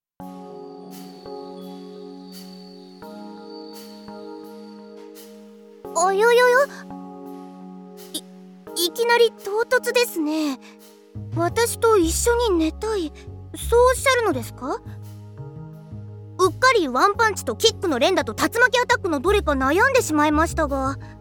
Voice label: DOKIDOKI添い寝ボイス

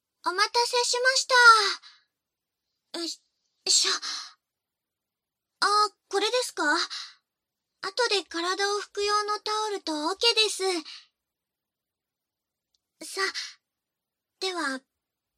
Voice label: 口淫+手コキ焦らし（バイノーラルマイク 効果音なし）

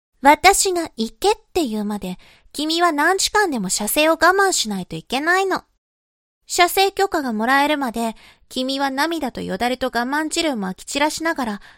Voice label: 懲罰中音声, ASMR

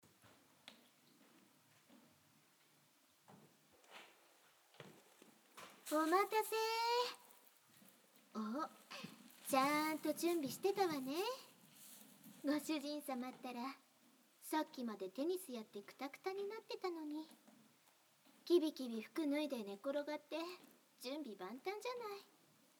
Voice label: 疲労回復オイルマッサージ; お屋敷づとめ。Vol.5―一之瀬梨蘭 リラクゼーション岩盤浴―

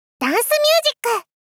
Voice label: ダンスミュージック！少女×中音域

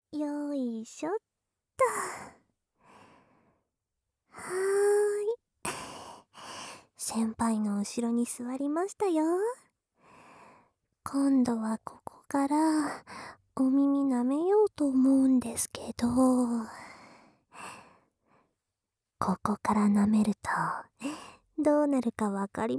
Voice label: ローター音なし, ASMR

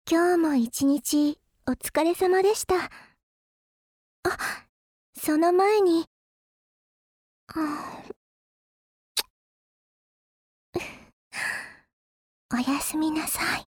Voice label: 今日も一日お疲れ様でした。 あ…その前に…ちゅっ…ふふっ、おやすみなさいっ, ASMR アラーム音声

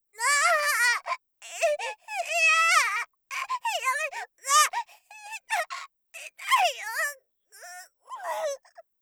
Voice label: 髪引っ張られる, ASMR; 効果音なし

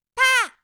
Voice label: 「パー」, 遊ぶ女の子

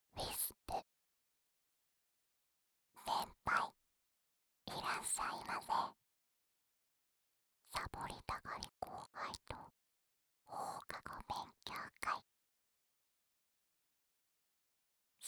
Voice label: タイトルコール, ASMR

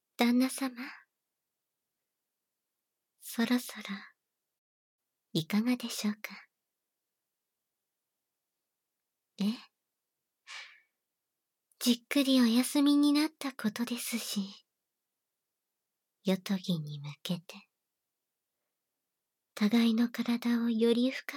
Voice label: 密着状態の誘惑（バイノーラルマイク 効果音あり大きめ）

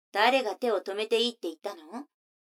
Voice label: 女の子 台詞, ASMR

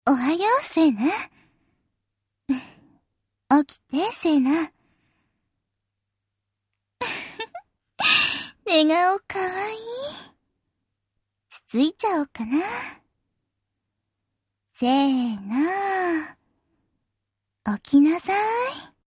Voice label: おはようボイス, ASMR; 屋上の百合霊さん#3特典ボイス; 美紀

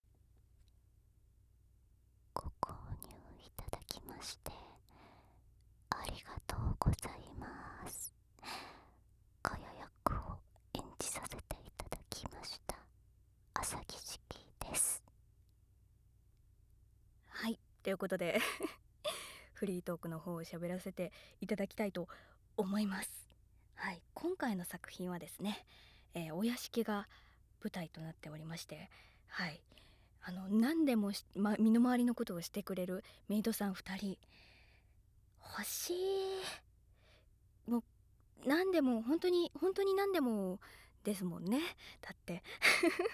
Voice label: フリートーク, ASMR

